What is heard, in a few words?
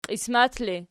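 "qiismat" ergative, Archi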